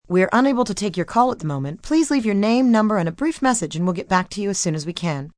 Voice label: Unable To Take, Voicemail, telephone messages, female; VoiceBox